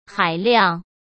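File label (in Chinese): "海量", Mandarin Chinese; chinese-flashcards; hsk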